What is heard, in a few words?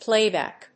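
"playback", English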